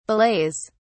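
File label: "belays", British English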